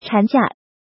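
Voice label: "Chǎnjià", Chinese; Tu vung nghi phep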